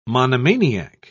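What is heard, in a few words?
"monomaniac", British English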